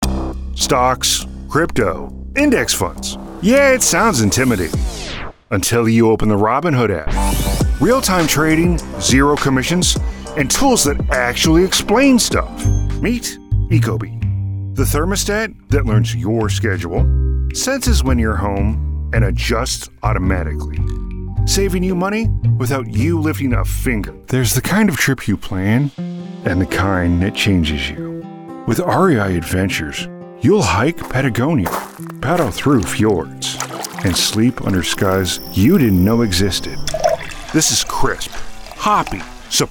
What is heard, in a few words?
Commercial, Voice, announcer